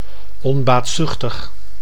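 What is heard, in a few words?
"onbaatzuchtig", Dutch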